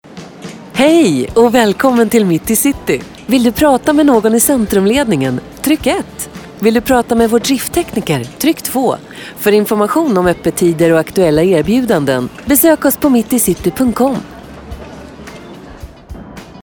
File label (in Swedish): telefonsvarare; mitticity